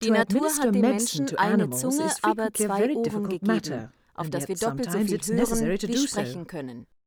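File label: 2x Female Speech, ICLD + ICC Coded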